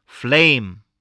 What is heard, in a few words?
"flame", spelling